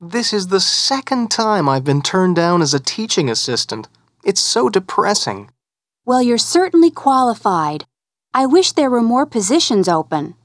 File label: dialog